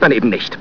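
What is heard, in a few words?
"daneben", German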